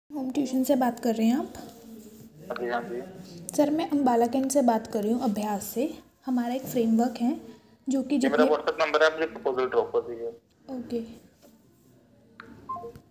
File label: call recording